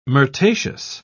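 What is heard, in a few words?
"myrtaceous", British English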